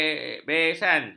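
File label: "besan", Malay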